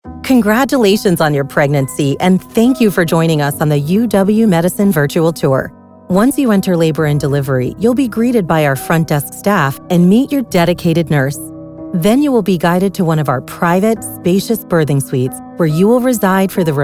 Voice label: Medical Narration Hospital

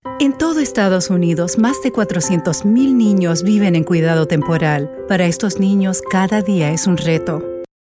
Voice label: Cuidado Temporal Compassionate

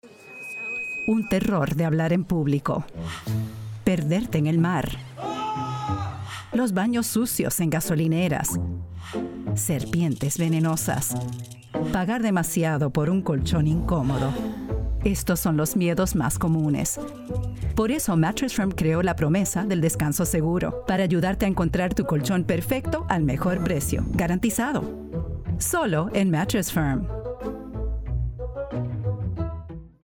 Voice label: Spanish; Mattress Firm; 30TV

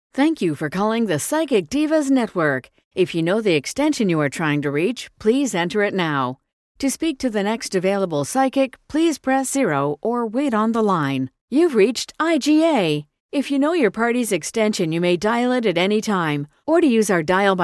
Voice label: IVR Phone; Voicemail IVR

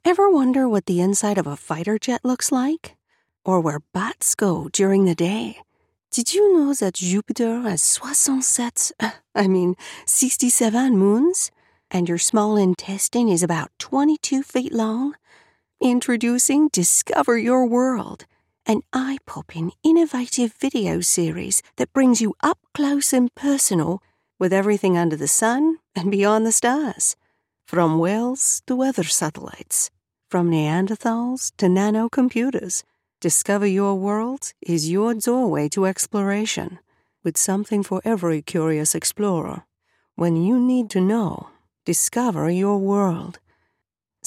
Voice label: Multi-Dialect

